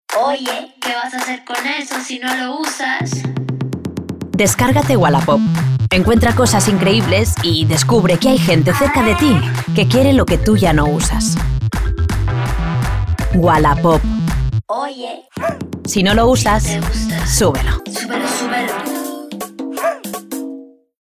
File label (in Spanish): Demo locutora